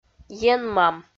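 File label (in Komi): "енмам", Komi, female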